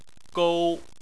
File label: "gou1", Chinese